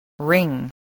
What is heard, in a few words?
"ring", English